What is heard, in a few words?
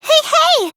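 "hey hey", English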